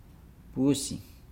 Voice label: "cat", Nukuoro